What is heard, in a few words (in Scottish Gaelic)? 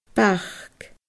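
"bac", Scottish Gaelic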